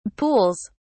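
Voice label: "pools", British English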